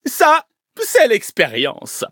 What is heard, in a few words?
levelup, fr; Cookie Run